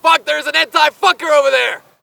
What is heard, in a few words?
spot; bf3